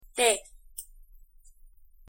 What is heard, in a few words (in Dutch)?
"teh", Maleis; thee-in-andere-talen